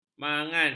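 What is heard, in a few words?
"manggan", Malay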